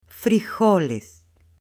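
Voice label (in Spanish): "frijoles" Spanish, male; ALIMENTOS; AppLenguaje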